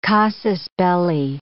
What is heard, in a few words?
"casus belli", English